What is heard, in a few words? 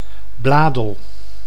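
"Bladel", Dutch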